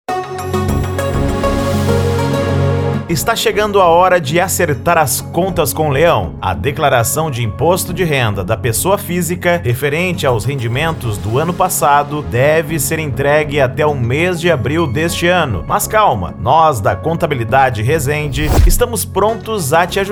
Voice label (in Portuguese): Locutor; Contabilidade Resende Manhuacu MG